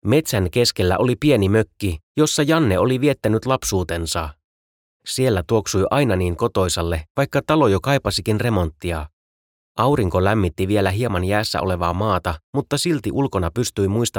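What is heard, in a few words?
Finnish Audiobook Male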